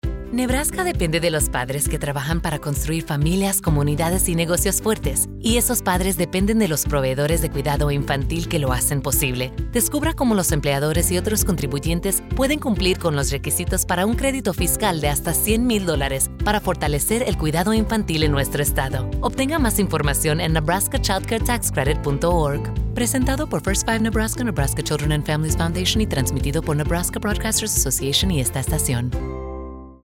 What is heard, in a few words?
Radio Spanish; First Five NE; Tax Credit Messaging CCTC Employers